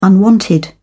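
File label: "unwanted", English, British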